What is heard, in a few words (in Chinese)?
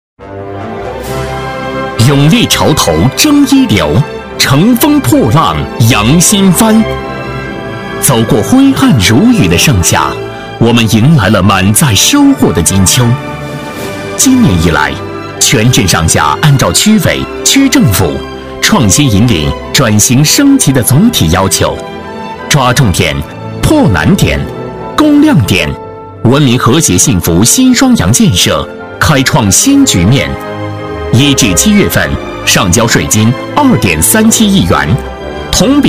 男 政府专题; 党委